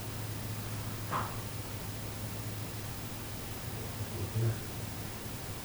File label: pop and deep voice; shelton hotel